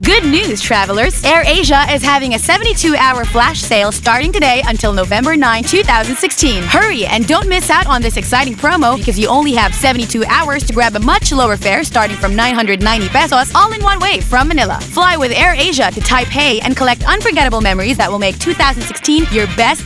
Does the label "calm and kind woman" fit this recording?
No